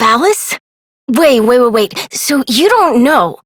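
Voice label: Voice, English; New War; Operator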